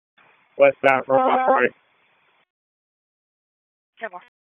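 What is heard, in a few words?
Raleigh Police Southeast District Dispatch, p25; wake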